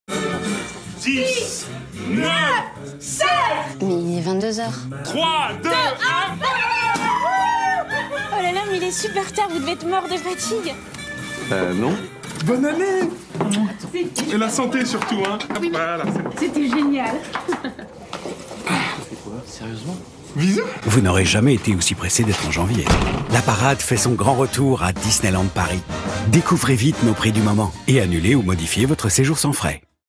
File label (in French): Pub TV; Disneyland